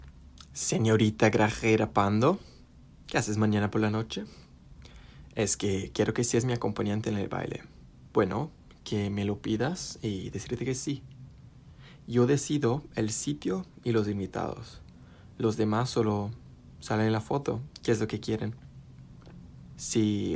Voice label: Spanish